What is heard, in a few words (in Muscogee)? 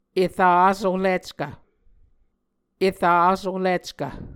"era sohlickv", Muscogee